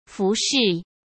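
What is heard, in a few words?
"服饰", Mandarin Chinese; hsk; chinese-flashcards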